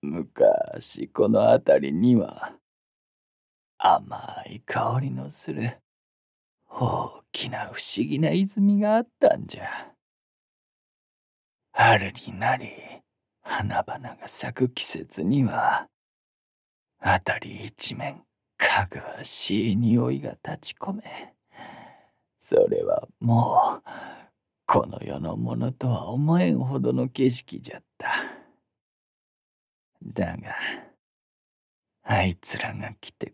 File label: male; おじいさん